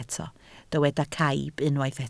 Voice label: "caib", Welsh